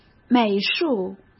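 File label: "美术", Mandarin Chinese; hsk; chinese-flashcards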